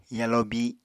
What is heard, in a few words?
"yalo bi", Apatani